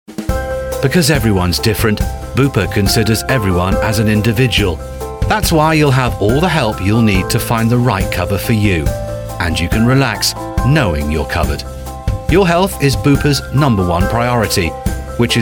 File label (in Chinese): 外籍, 医疗保险宣传片; Bupa; 英式英语配音; 一个富有内涵、热情的英国人